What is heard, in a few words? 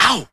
ow male